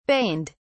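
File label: "baned", British English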